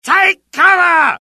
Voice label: alert, UK; mohaa; dialogue